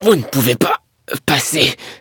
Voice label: hit fr; Cookie Run